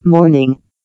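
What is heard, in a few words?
"morning", English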